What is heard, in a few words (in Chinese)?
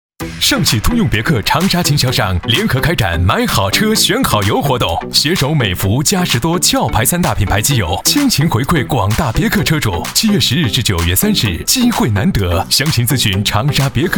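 男国大音-（广告）; 通用别克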